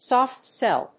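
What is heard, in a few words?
"soft sell", English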